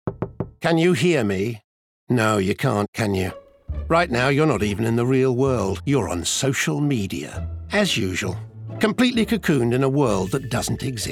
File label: Voicetape Compilation Commercial; Natural Accents: Neutral, RP